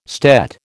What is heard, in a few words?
"stet", American